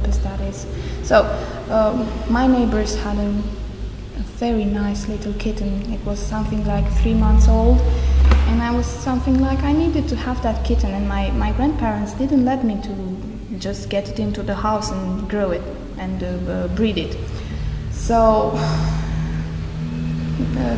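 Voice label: romania, International Dialects of English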